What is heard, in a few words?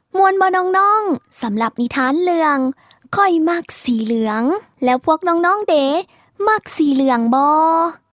Lao